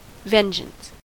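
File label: "vengeance", American English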